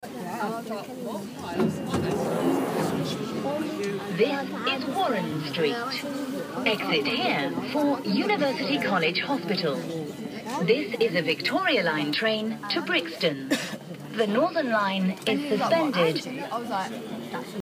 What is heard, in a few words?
Line Victoria This Warren Street, Underground